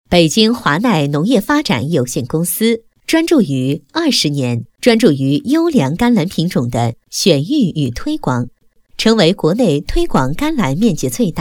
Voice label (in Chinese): 女 宣传片